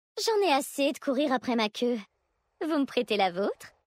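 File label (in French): French Female Flirt; Vulpérins; Queue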